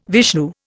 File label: "Vishnu", Indian